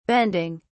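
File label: "bending", English, British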